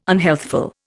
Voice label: "unhealthful", Indian

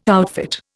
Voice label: outfit, Indian

